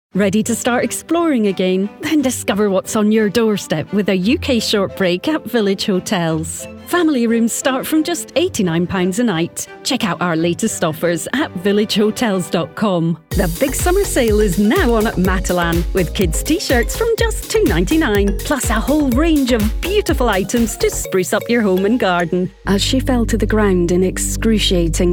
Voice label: female English Scottish commercial compilation